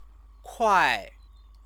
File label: "kuai", Chinese